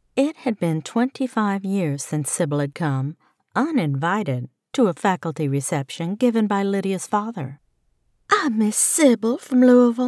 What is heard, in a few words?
Third Person Southern American Female